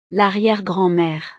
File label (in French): "l arriere grand mere", frances; familia